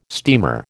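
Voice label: "steamer", American English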